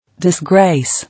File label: "Disgrace" English